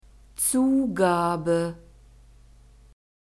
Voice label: "zugabe", German

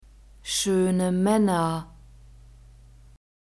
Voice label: schoene maenner, German

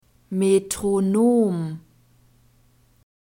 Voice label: "metronom", German; masculine

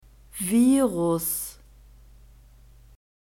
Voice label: "virus", German; masculine

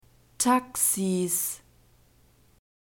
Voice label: "taxis", German

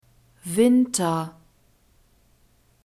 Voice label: "winter", German; masculine

